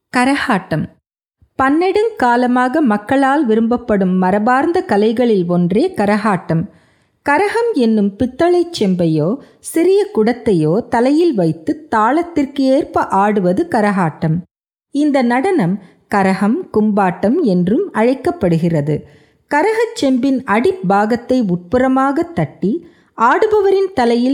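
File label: elearning tamil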